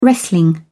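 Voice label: "wrestling", English, British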